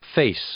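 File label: "face", English; noun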